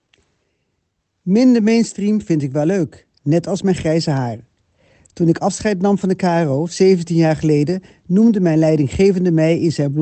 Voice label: interview fragment